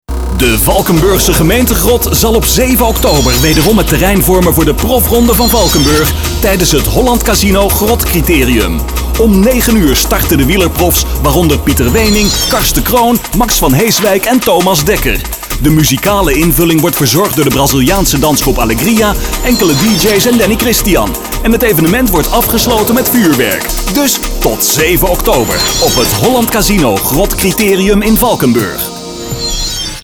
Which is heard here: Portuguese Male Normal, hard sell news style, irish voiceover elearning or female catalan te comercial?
hard sell news style